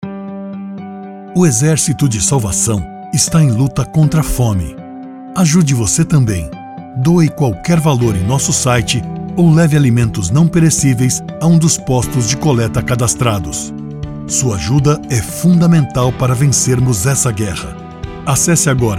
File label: radio spot; lutamos contra a fome; echos